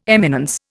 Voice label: "eminence", Indian